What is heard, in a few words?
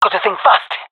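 scared, female; Counter Strike Model Pack; CS:GO; jungle fem epic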